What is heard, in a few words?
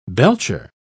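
"belcher", British English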